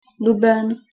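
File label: "duben", Czech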